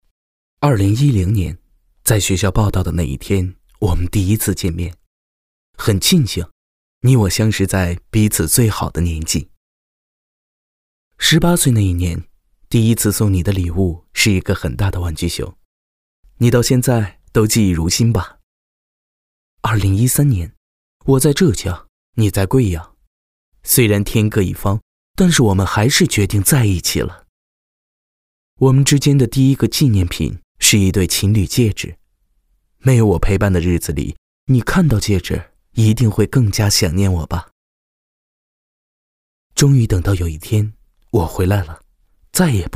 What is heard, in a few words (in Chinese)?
男 婚礼求婚告白, 国语男声配音 年轻时尚飞碟说; 内心独白; 男8年轻时尚飞碟说